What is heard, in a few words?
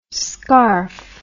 "scarfe", English